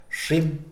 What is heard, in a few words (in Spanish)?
"allá", Mixe, hombre; INALI